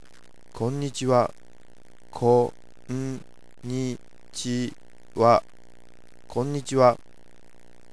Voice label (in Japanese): "konnichiwa", daily expressions